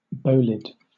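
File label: "bolide", British English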